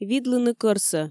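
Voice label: "видлыны кӧрсӧ", Komi, female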